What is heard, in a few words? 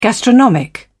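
"gastronomic", British English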